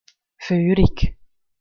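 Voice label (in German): "voerig", Swiss German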